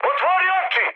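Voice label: Serbian player friendly fire mood high; War Thunder; speech srb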